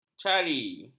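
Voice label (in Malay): "cari", Malay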